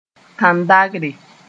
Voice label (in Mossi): "tãn-dagre", moore